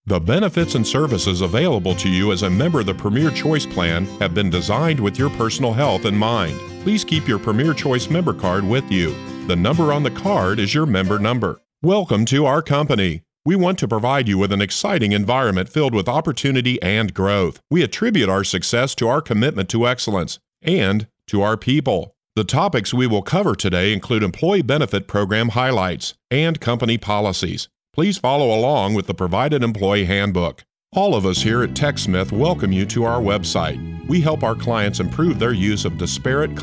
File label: CORPORATE EXPLAINER e LEARNING NARRATION; Imaging, Promo & Trailer Demo